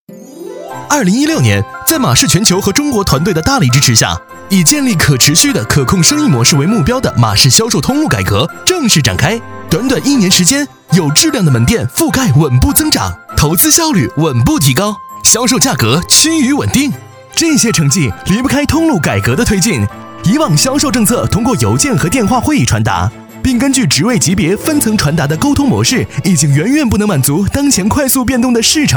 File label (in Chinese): 男 国 大音; 飞碟 通路; 飞碟说配音